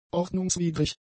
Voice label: "ordnungswidrig", German; adjectives